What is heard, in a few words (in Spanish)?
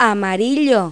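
"amarillo", Spanish; Pipo-Aprende musica con Pipo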